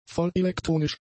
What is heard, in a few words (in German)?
"vollelektronisch", German; adjectives